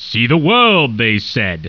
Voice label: annoyed; war2; humans; basic human voices